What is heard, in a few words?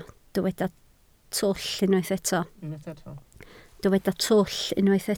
"twll", Welsh